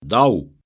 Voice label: "daou" Breton